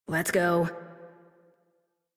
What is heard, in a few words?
Horse Start Adult; Warframe; Duviri